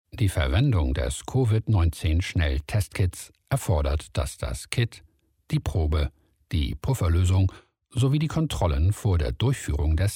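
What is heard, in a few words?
German male voice over, narrative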